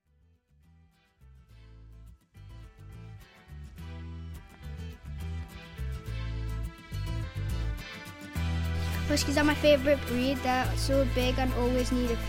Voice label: rap recordings; cramlington